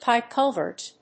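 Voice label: "pipe-culvert", English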